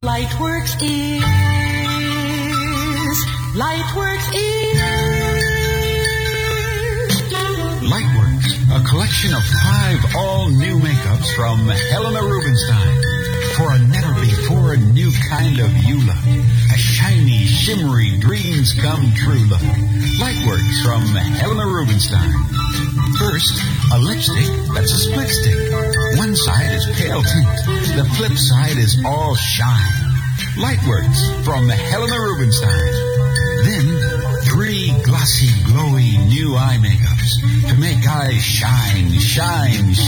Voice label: Radio Commercial; Lightworks; Helena Rubinstein; 1967